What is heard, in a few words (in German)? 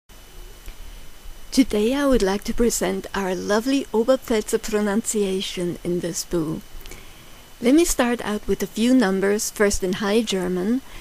oberpfalzisch